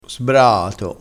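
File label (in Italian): "sbraato", dialettale, crespinese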